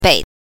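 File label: "bei", Mandarin Chinese pinyin, neutral tone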